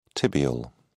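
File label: "tibial", British English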